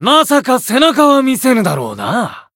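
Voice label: encounter, Japanese; shigure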